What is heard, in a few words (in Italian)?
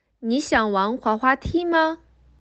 Cinese